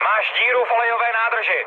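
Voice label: damaged oil, Czech, mood med; speech; War Thunder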